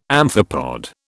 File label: "amphipod", British